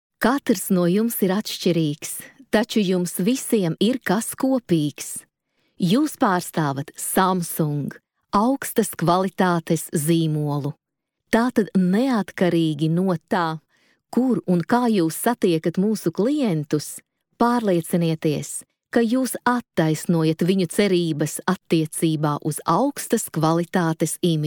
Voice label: female latvian voice over sales